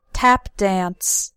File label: "tap", English; english vocabulary words dance